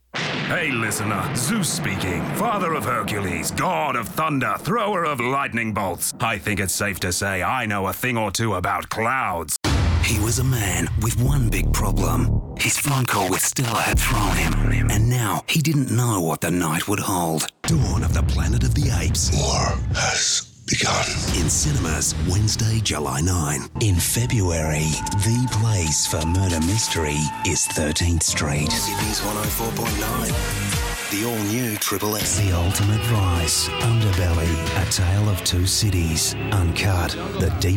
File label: promos; EM Voices